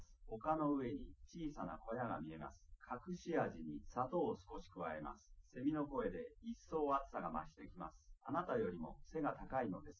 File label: male liverec